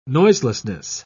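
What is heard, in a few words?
"noiselessness", British English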